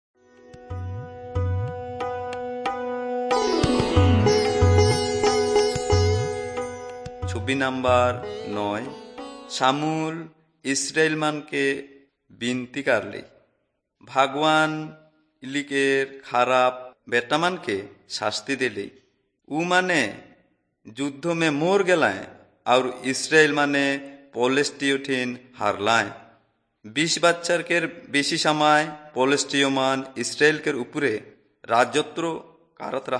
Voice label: servants of god urao, kurukh drama

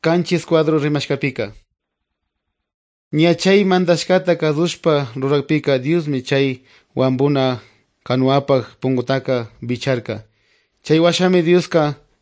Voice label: good news quichua otovalo

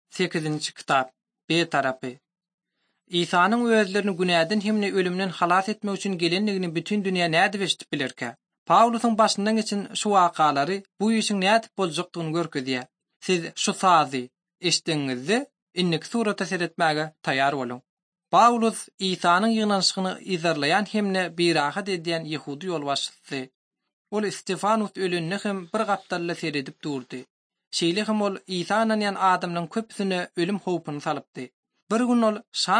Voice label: turkmen, bible translation drama

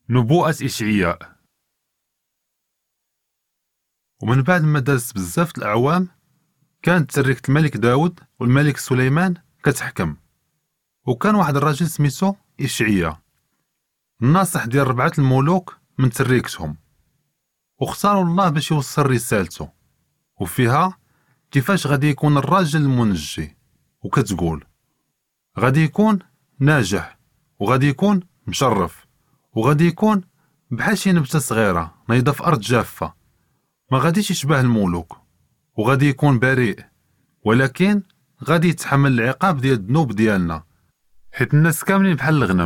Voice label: moroccan arabic; isaiah prophecy one story

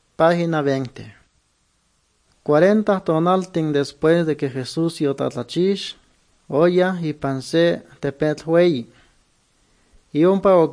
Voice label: good news, nahuatl guerrero chilapa

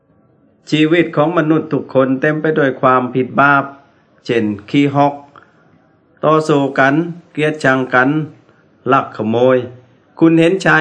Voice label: words of life creation to christ thai southern

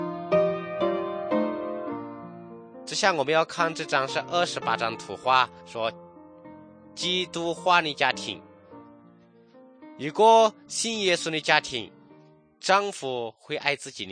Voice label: good news shan, drama

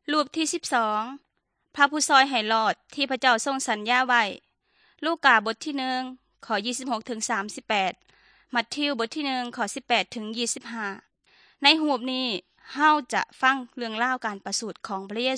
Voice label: good news thai northeastern

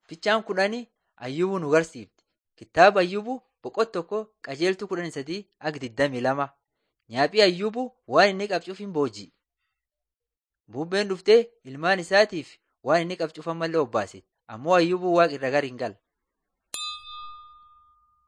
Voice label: borana sakuye; laali chaqasi and jiradin 1 waaqan yayabadi beginning with god

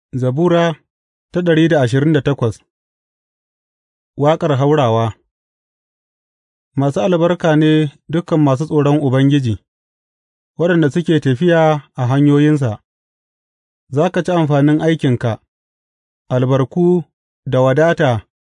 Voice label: hausa bible drama, psalms; hausa bsn nt drama

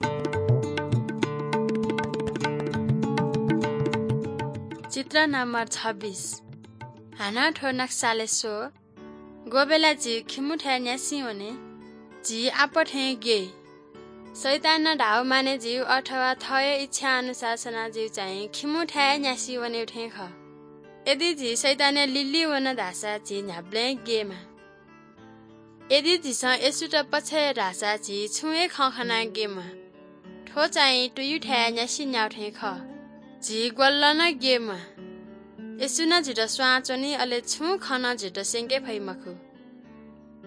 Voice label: good news newari balami

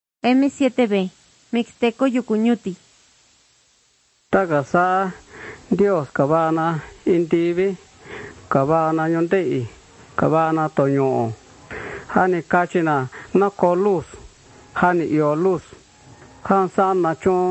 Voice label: mixtec diagnostic spanish mexico

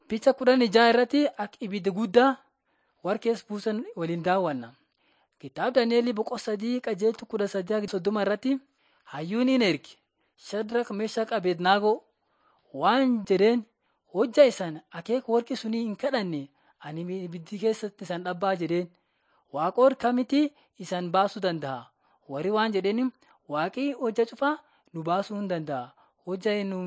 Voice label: borana sakuye; laali chaqasi jiradin 5 jilalacha waaqat on trial for god

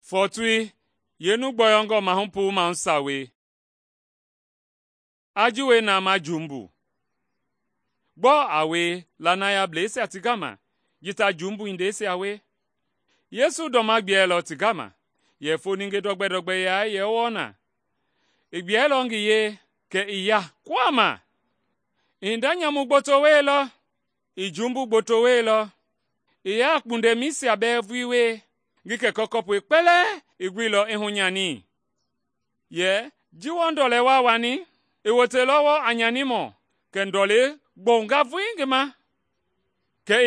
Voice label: mende bsl nt drama; mende kpa; good news; bible

